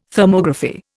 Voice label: "Thermography", Indian

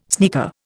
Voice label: "sneaker", Indian

